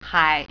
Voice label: "ocean", Mandarin; haiv, yang